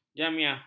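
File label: "jamiah", Malay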